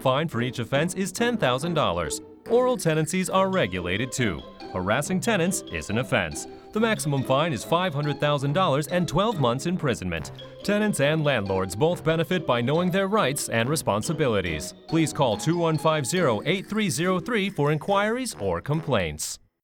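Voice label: Tenancy Control of Subdivided Units Radio English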